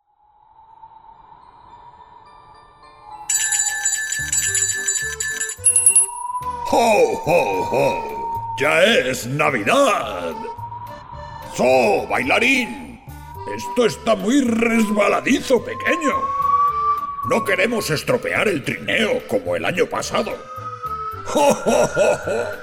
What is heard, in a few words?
Santa Claus Voice